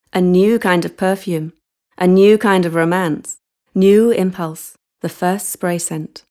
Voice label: Bajada, Ingles (Britanico)